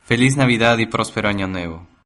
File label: christmas spanish